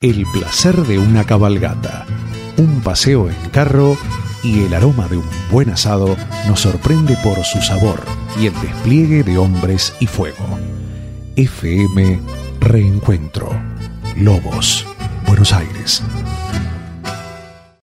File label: Latin Spanish